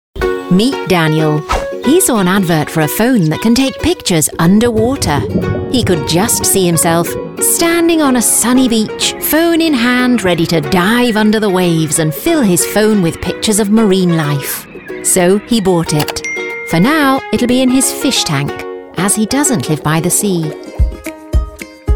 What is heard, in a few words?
Voiceover Corporate